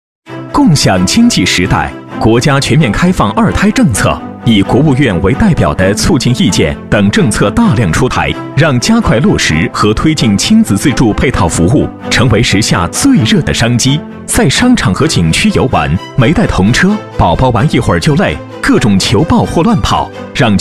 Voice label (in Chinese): 男 产品宣传 通透明亮; 熊猫遛娃; 男40-磁性稳重; 轻松活泼